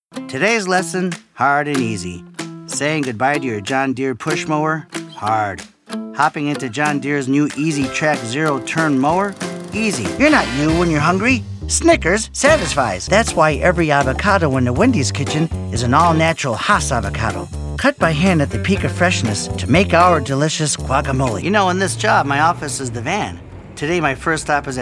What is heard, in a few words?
voiceover reel